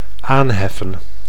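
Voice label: "aanheffen", Dutch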